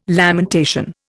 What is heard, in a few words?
"lamentation", Indian